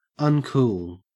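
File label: "uncool", Australian English; pronunciations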